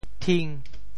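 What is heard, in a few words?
"thing5", Teochew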